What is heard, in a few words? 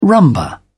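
"rumba", English, British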